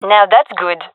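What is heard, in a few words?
compliment, female; vo; gendarmerie fem epic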